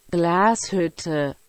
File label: "Glashutte" Pronunciation